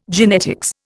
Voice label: "genetics", Indian